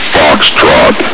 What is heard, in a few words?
"foxtrot", Combine soldier; hl2